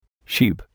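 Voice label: "sheep", English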